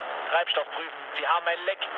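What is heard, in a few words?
German tank damaged mood low, speech; War Thunder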